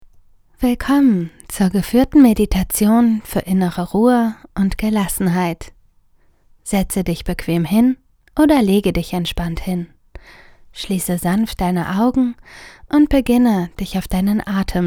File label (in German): Meditation DE